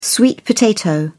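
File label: "sweet potato", British English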